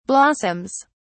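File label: "blossoms", British English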